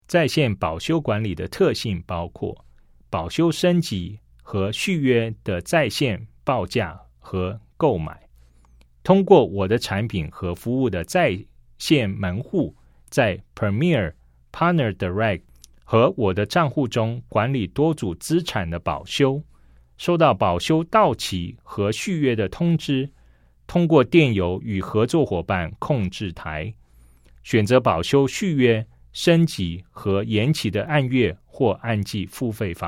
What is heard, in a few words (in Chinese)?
CHINESE